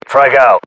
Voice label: UK inform attack grenade, battlechatter

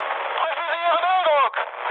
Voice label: damaged oil, mood high, speech ge; War Thunder

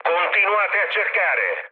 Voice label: chat, speech it; War Thunder

